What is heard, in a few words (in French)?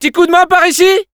Scout help me, fr